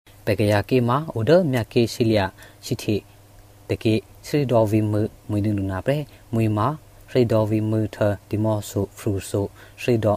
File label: Karenni